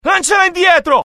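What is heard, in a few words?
fear grenade, IT; mohaa; dialogue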